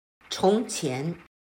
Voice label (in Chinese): "从前", chino